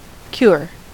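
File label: "cure", US English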